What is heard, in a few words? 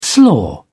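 "slaw", British English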